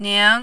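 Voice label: "ning", Mandarin, second tone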